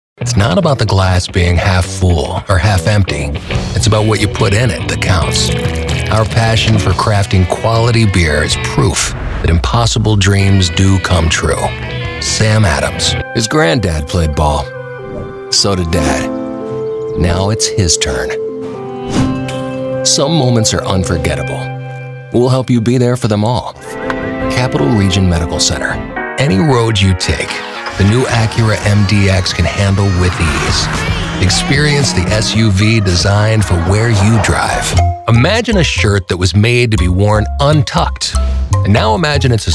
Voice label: commercial men